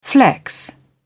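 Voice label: "flex", British English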